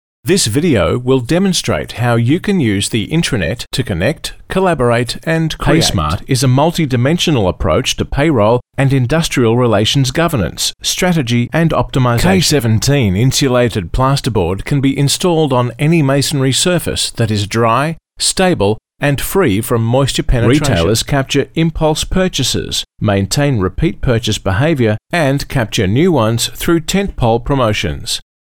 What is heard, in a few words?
Corporate